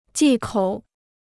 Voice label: "忌口", Chinese